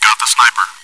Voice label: chitchat, bot, voice commands; svencoop

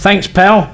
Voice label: Thanks, male; svencoop

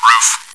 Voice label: chitchat; svencoop

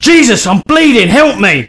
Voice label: Medic, male; svencoop

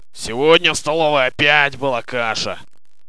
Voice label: monologue, soldier; svencoop; paranoia

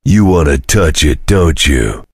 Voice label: chitchat; svencoop; voice commands; Duke

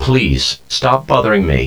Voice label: robot vox

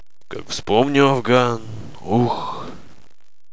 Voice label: monologue; svencoop; paranoia; officer

